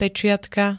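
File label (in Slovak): "peciatka", Slovak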